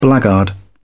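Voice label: "blackguard", British English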